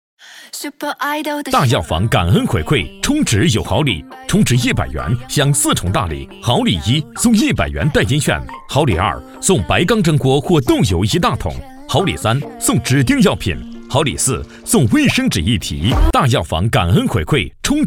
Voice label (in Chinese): 广告大药房感恩回馈